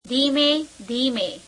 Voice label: "slowly", gujarati; ધીમે ધીમે; dheīme dheīme

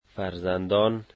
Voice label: "children", dari

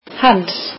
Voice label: icelandic his

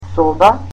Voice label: "room", Macedonian

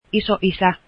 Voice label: finnish grandfather

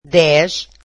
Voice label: "ten", Portuguese

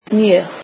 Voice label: "very", Icelandic

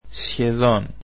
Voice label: "almost" greek

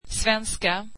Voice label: swedish Swedish

